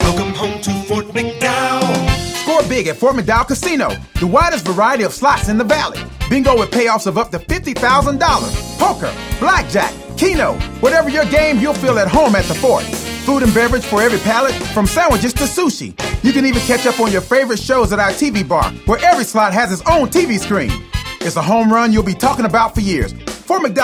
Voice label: voiceover male